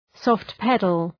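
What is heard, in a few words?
"soft-pedal" English; {‘sɔ:ft,pedəl}; zbus me pedal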